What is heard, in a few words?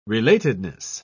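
"relatedness", British English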